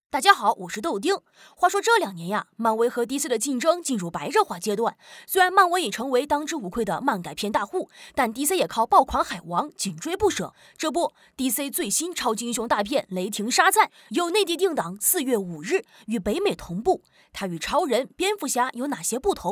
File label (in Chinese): 童声, 男童电影解说